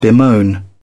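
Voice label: "bemoan", British English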